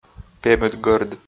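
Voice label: "пемыдгӧрд", Komi, male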